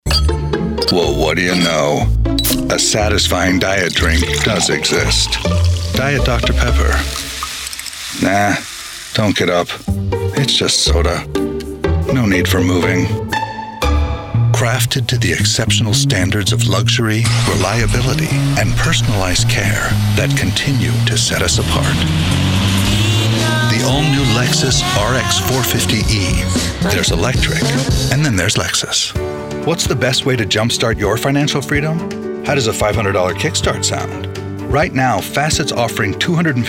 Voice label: Commercial

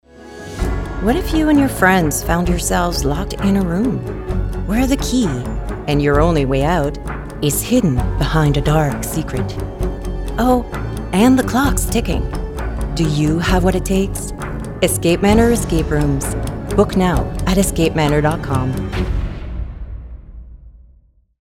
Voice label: Commercial; Escape Manor

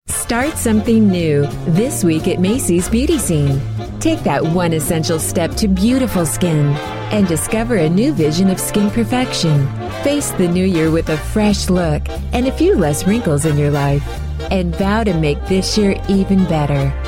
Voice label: Commercial

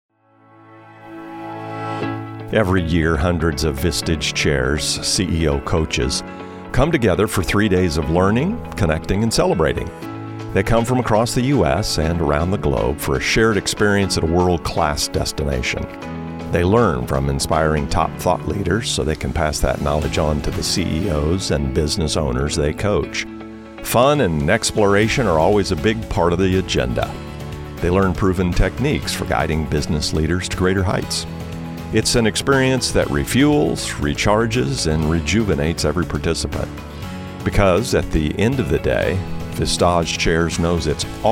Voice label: Narration Coaching; Vistage

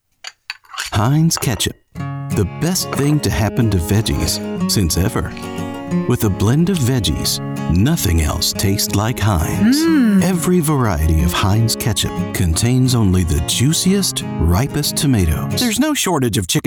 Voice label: Commercial VO

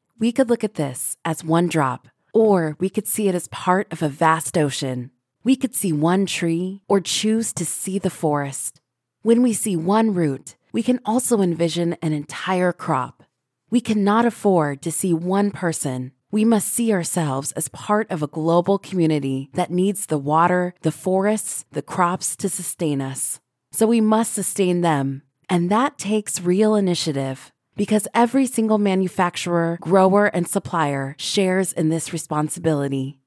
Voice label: Marketing Ad Hopeful, Inspriational, Warm

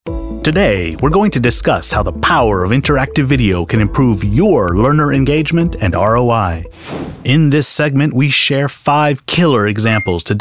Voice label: Narration

